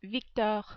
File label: "Victor", French